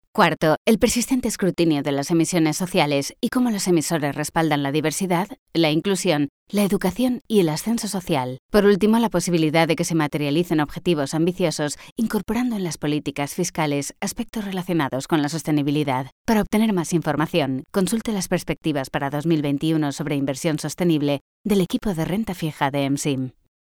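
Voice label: Spanish, European, female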